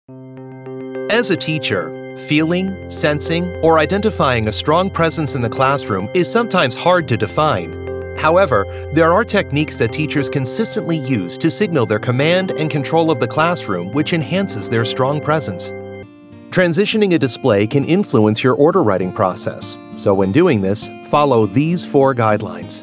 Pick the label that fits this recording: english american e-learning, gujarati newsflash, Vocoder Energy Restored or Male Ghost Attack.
english american e-learning